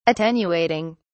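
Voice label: "attenuating", English, British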